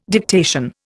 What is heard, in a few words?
"dictation", Indian